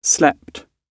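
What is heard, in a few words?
"slept", British English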